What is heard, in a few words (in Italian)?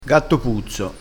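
"gatto puzzo", vocabolario dialettale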